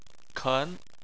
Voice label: "ken2", Chinese